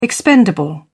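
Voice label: "expendable", English, British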